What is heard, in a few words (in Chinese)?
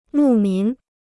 "牧民", Chinese